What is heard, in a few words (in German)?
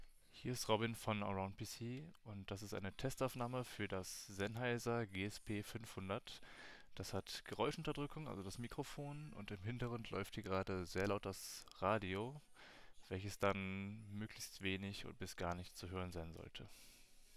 Sennheiser GSP 500 Mikrotest